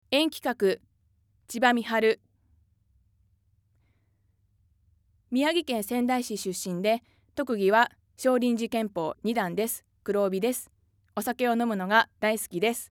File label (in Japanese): free talk, female voice